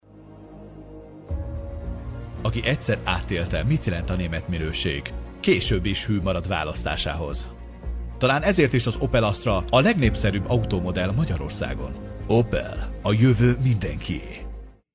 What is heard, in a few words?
Hungarian male